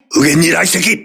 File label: voice message torpedo right, crew ships japanese